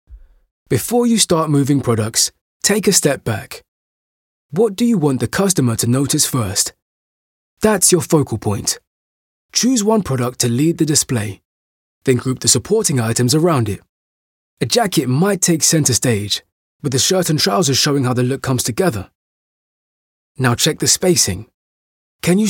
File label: e-learning